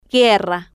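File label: "guerra", Spanish, female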